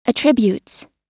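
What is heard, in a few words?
"attributes", US English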